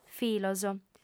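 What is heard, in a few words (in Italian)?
"filos", lingua sarda, female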